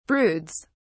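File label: "broods", British English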